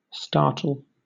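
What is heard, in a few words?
"startle", British English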